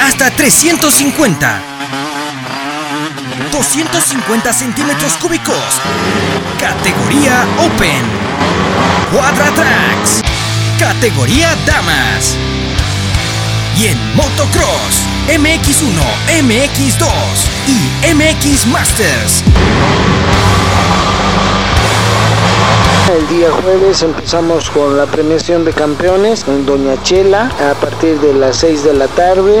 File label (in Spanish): cuña larga de motociclismo en huancarani